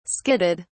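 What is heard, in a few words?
"skidded", British English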